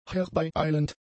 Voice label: "herbeieilend", German; adjectives